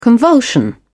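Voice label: "convulsion", British English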